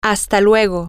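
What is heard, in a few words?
until later, spanish, female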